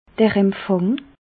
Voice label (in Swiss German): "empfang", alsace